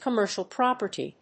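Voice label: "Commercial property", English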